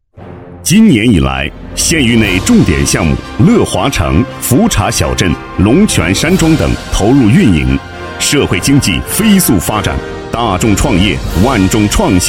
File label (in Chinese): 男 专题 昂扬向上, 国语男声配音, 浑厚; 泾阳国税